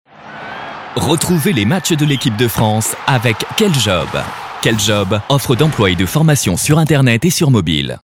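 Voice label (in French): sport, voix off parrainage, homme; KELJOB sport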